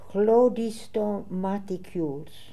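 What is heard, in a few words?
"Hlodistomaticules", pronunciations; Fourth Way; Beelzebub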